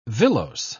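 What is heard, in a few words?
"villose", English, British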